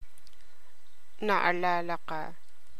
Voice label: tagalog